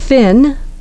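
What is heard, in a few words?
"fin", English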